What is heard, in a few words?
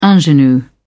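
"ingenue", British English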